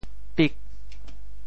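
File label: "pik8", Teochew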